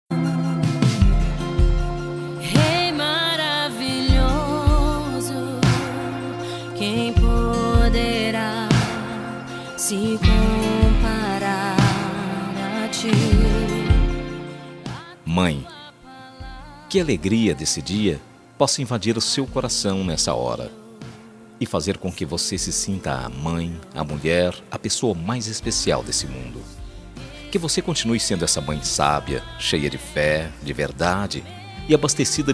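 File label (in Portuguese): DIA DAS MAES EVANGELICO male, telemensagem; DIA DAS MAES EVANGELICO MASC; telemensagem dia das maes evangelica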